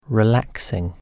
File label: "relaxing"